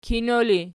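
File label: "kino" ergative, Archi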